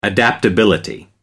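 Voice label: "adaptability", English, British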